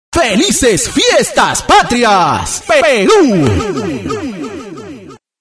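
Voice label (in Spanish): cuña felices fiestas patrias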